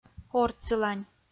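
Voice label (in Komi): "ортсылань", Komi, female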